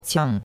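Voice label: "qiang4" Mandarin Chinese syllable, fourth tone; Cours de Chinois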